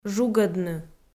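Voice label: "жугӧдны", Komi-Zyrian, female; audio dict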